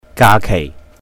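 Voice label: "ga kèih", Cantonese; holiday; 假期; holidays and festivals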